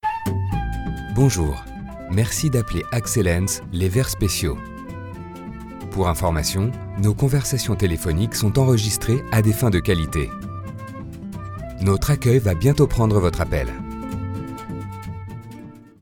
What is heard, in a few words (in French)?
Attente Repondeur Tel; Axelens